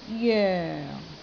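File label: "joeng4", Cantonese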